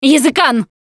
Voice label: warn smoker, russian; Voice; Producer